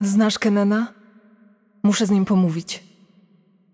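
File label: voice over, Polish; Yunara Intro; bloom minigame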